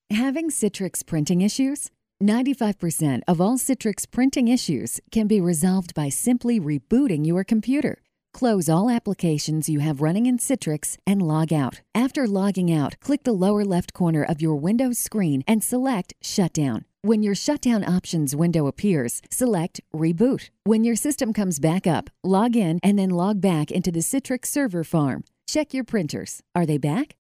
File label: eLearning Female